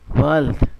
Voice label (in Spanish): "balde", Zapoteco Magdalena Ocotlan; Objetos; INALI; Haspelmath